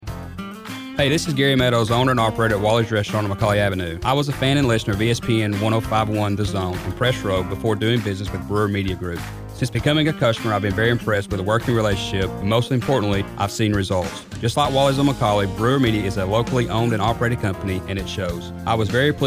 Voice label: PRODUCED TESTIMONIAL